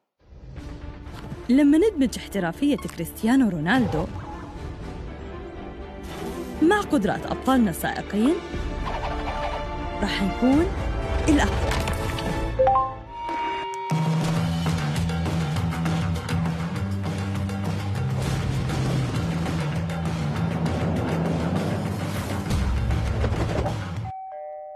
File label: jordanian voiceover